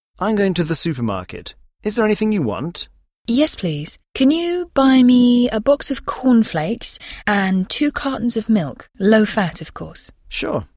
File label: dialog